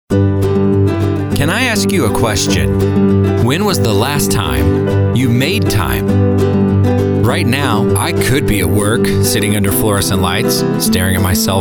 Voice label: Commercial